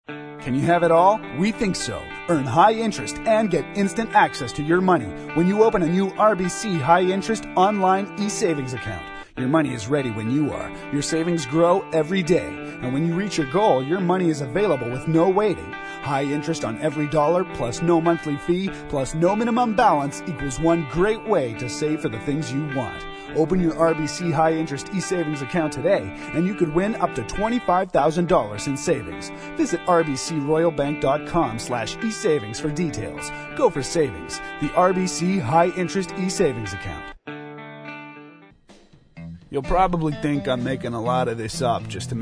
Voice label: Voice Demo; men